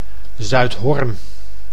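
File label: "Zuidhorn", Dutch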